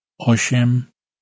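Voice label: "oshem", Polish, male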